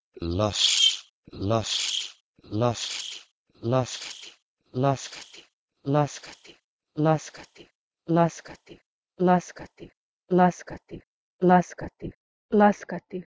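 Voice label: Proto-Indo-European leh2s to Bosnian laskati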